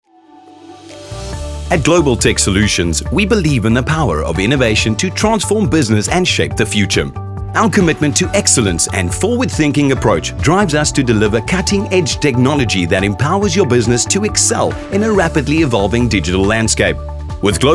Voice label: ENG CORP